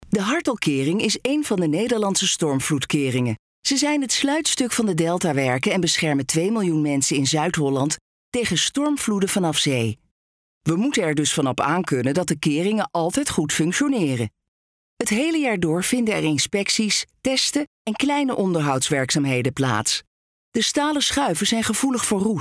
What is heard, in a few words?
nl corporate